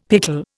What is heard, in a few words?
"pickle", Indian; Dictionary